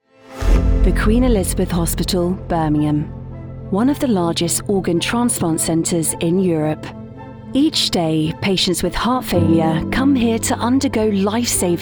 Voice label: english british documentaries; dubladora profissional britânica